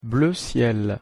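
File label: "bleu ciel", French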